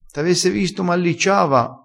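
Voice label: "alliccia", Italian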